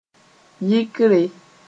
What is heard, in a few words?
"yɩkre", Mooré; flying away